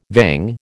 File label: "vang", American